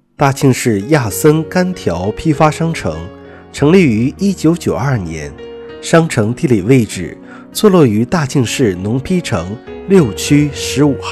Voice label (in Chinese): 干调广告